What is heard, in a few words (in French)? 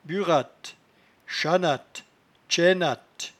"buratte", patois jura